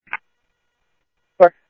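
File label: police radio dispatch, ScottsdalePolice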